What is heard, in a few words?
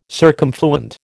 "circumfluent", American